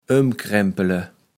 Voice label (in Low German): "ömkrempele", kaevels platt